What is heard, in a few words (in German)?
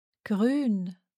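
"gruen", German; schreiben und lesen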